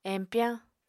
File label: "empyañ", Apatani